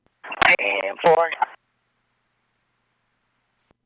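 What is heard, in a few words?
P25 Troop District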